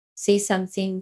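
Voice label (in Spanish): Voice Acting es F, Spanish, female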